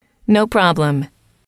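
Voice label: "no problem", English, female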